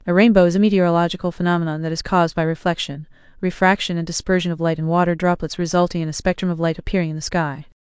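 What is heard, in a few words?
speaker, American English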